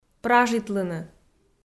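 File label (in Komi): "пражитлыны" Komi, female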